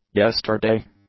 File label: "yesterday", english; adjectives